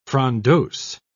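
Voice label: "frondose", British English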